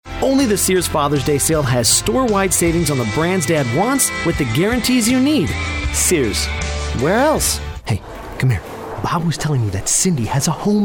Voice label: commercial, men

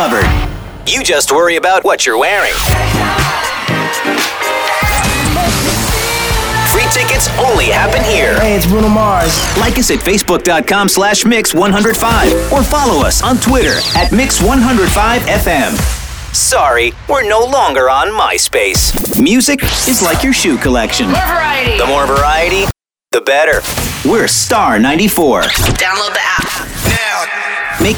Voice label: radio top 40, radio imaging

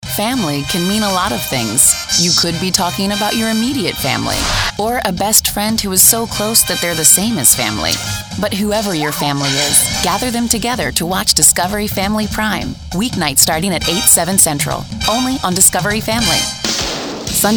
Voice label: promo, women

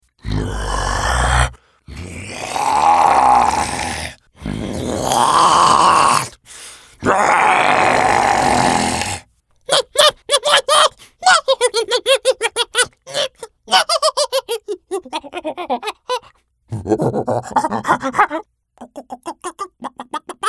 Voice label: animation men creature

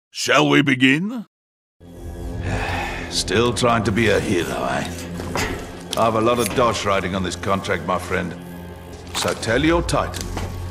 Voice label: animation male interactive

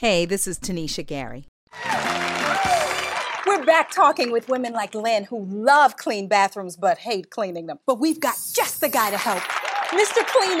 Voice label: commercial female; commercial women

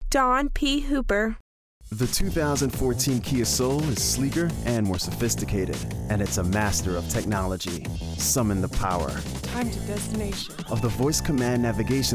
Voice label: promo men

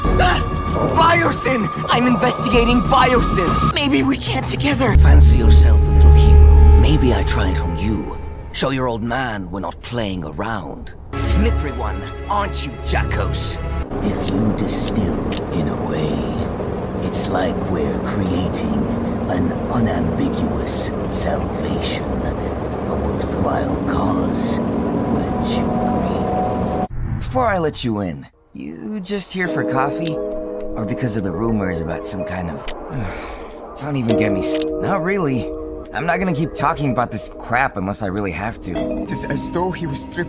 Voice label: animation men, voices men

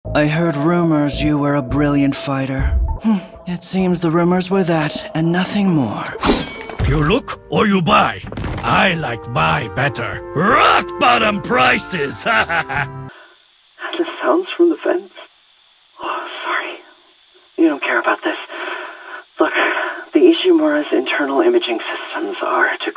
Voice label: animation men, voices men

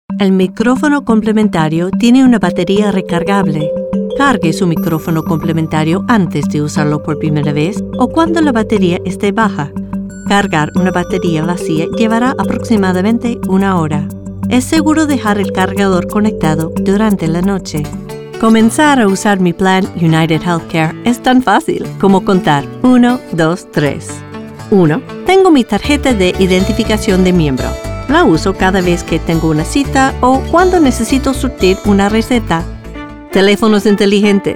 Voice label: languages women spanish narration

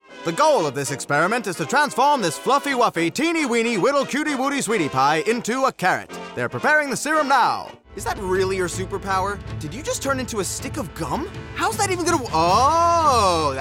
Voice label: animation men

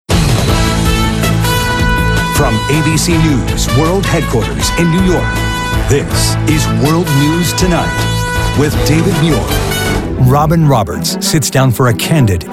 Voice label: promo men

